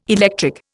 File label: "electric", Indian